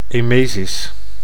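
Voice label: "emesis", Dutch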